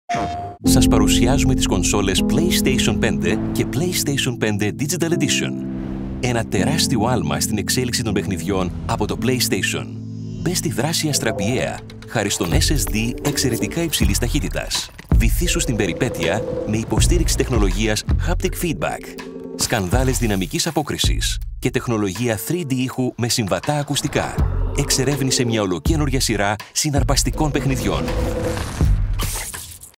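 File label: Greek, male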